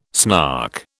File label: "snark", British